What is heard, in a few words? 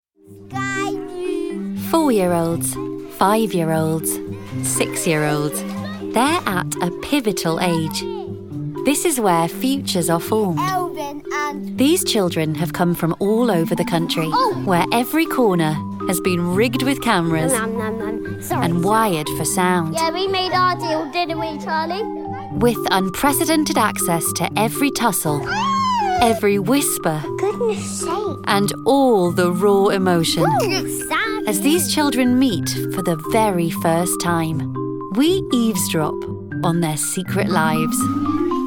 Narration